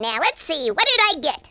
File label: "collect", Conscience; speech